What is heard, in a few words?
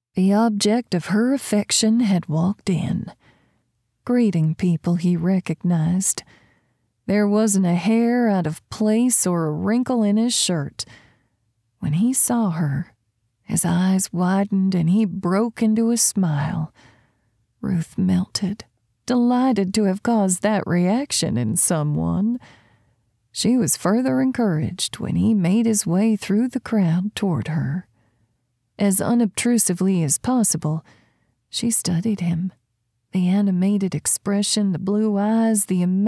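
Texan Accent, Female; Accent Demos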